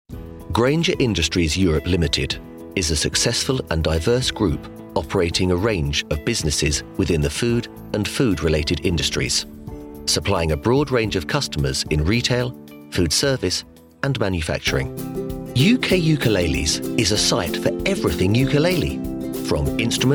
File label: Corporate VOICE